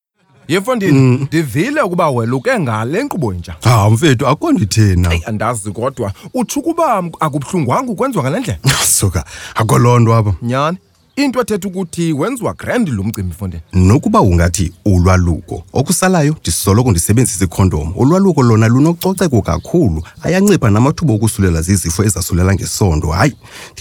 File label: circumcision radio xhosa